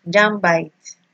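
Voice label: "jambite", Nigerian Pidgin, female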